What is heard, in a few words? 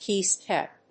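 "key step", English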